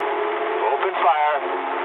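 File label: English attack position mood low; War Thunder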